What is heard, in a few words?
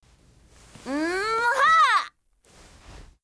FEMALE Emotion